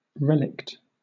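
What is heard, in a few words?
"relict", British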